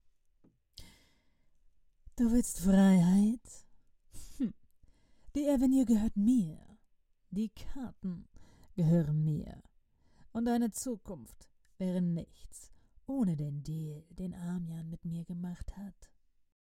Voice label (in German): anthagonistin sprecherin